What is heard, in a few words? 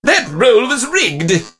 hurt vo; 奥利